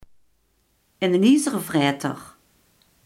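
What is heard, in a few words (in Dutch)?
iemand die door dik en dun gaat, Limburgse gesproken woordenboeken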